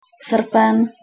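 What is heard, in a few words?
"srpen", Czech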